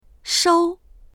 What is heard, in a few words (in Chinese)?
"shou1", voices; 收; 収める、受け取る